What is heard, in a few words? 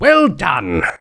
Civilian cheers